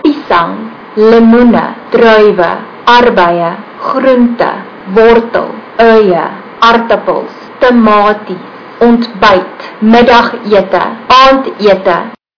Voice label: afrikaans; food

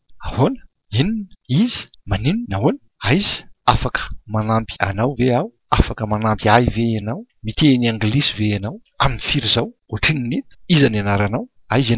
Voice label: malagasy phrases

